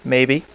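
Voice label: "maybe", male, voice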